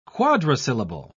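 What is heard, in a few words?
"quadrisyllable", English, British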